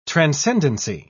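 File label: "transcendency", British English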